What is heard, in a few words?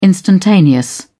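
"instantaneous", British English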